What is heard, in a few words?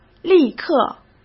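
"立刻", Mandarin Chinese; chinese-flashcards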